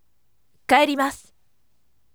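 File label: voice, firm; kaerimasu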